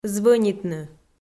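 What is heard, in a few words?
"звӧнитны", Komi, female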